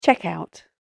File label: Checkout British Female Voice; Recorded Voice; Andrew Fleming